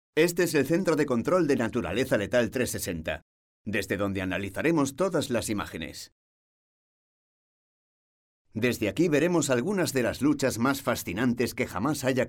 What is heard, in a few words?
spanish m narration; voice demos